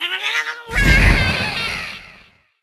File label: Angry; Linirea Joe